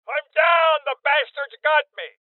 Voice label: Voices Injury Male Old